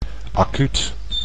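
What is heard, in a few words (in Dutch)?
"acuut", Dutch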